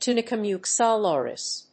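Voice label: "Tunica muscularis", English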